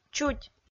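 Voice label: "чуть", Komi, female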